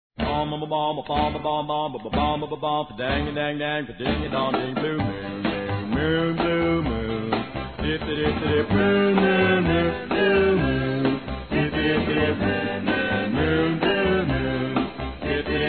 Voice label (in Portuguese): CRIANÇA